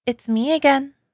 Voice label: its me again girl ringtone

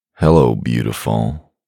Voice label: man hello beautiful, deep voice; voice-ringtones

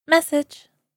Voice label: message, girl voice tone; message tones

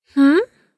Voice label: hmm girl tone; message tones

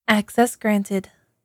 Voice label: access granted, voice ringtone; voice-ringtones

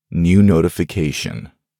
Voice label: man new notification; ringtone; voice ringtones

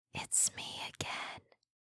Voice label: asmr girl its me again; ringtone; asmr ringtones

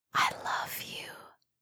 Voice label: asmr girl i love you; ringtone; asmr ringtones

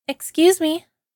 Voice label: excuse me, voice ringtones

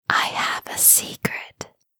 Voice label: asmr girl i have a secret; asmr ringtones

